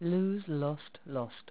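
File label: "lose", English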